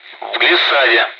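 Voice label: Speech, Russian, air traffic control; DCS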